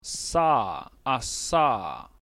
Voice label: Voiceless alveolar fricative